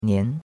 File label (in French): "nian2", chinois; Cours-de-Chinois